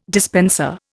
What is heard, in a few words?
"dispenser", Indian